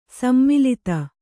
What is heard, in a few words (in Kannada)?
"ಸೋಮಲತೆ", Kannada